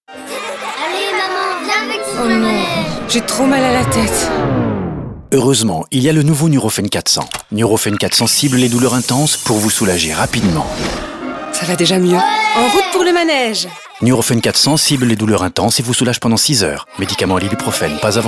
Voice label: TV Droit Serieux; NUROFEN

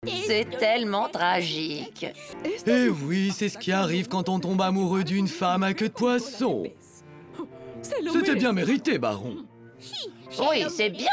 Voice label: VOIX VIEILLE AGEE RONDE 12 ANS PRESENTATRICE; ESPRIT DETONNANT

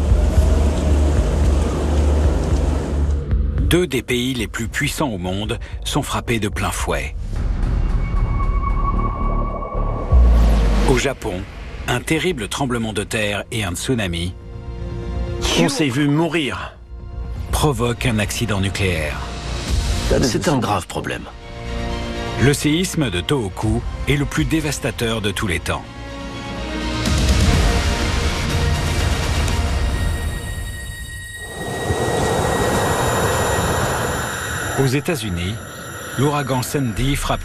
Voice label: Voix Narrative Quand la nature se déchaine; RMC Story

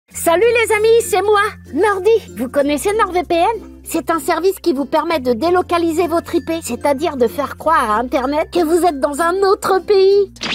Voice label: PUB AUDIO; NORD VPN

